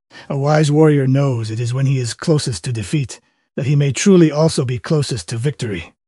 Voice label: happy; fertig-classic-games; Master-of-Vega-Tutorial; kage